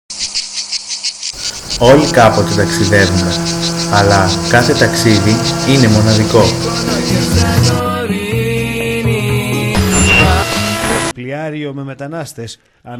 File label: Radio spot; GEL VYRONEIAS